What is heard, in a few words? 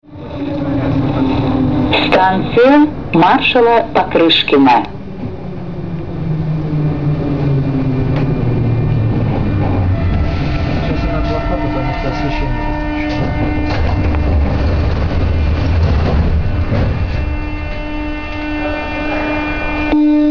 female